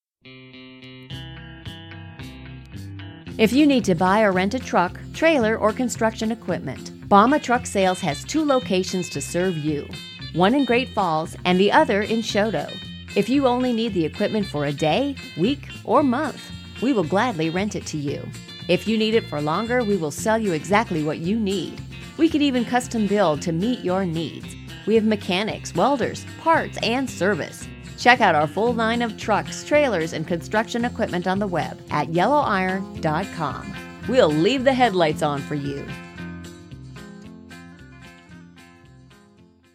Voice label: FEMALE